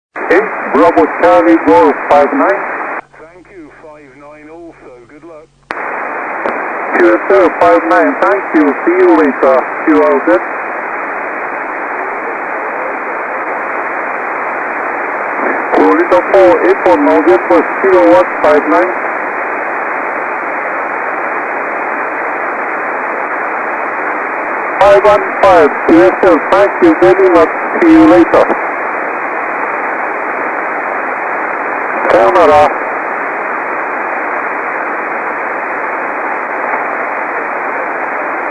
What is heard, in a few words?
ssb qso